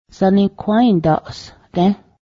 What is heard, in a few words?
do you understand, Cayuga; saniǫ̱haę́daˀs gę́h